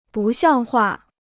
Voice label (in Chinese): "不像话", Chinese